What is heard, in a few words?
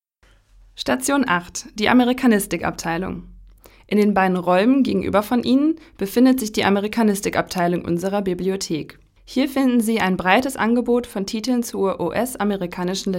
audioguide deutsch; bibliothek; station 8